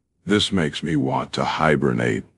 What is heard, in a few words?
upset; fertig classic games